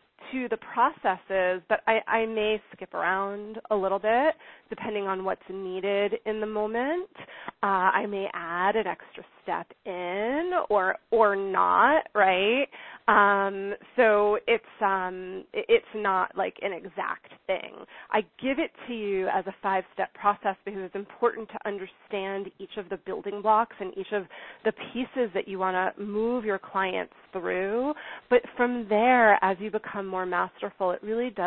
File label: Coaching Demo